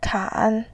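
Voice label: "kan3", Mandarin Chinese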